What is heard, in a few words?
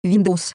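Russian Windows; EFI Audio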